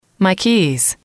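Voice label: "keys", English, female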